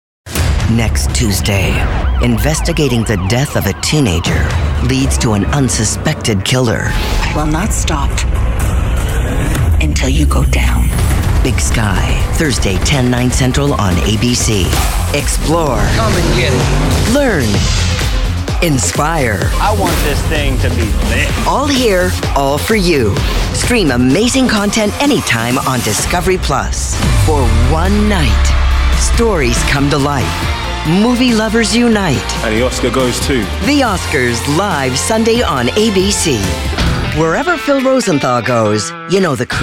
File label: Promo